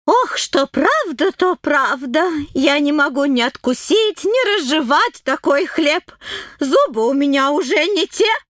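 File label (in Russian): babushka pitera, grandmother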